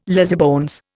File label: "lazybones", Indian